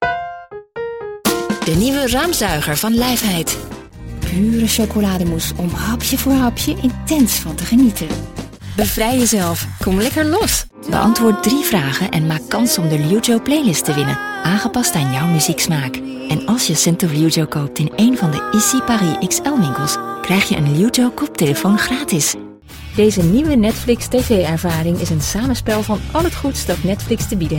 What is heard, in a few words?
dutch promos; Multilingual professional with an international sound.